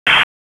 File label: EMS ALERT, p25